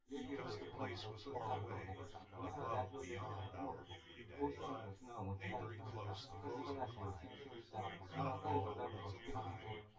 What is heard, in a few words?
male synth conv sim; SiSEC10; underdetermined